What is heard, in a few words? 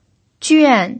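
"juan" fourth tone, pinyin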